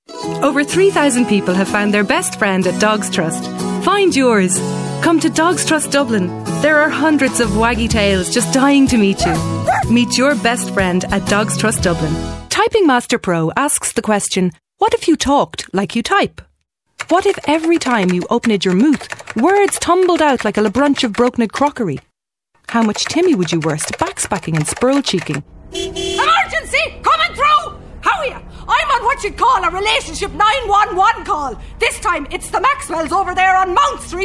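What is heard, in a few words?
English Irish, female, commercial; voice demos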